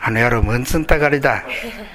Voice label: "muntun", minamiyamagata

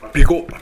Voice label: "bego", minamiyamagata

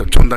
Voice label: "jonda", minamiyamagata

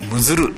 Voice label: "muzuru", minamiyamagata

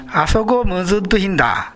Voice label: "muzuru", minamiyamagata

